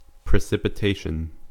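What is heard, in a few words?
"precipitation" English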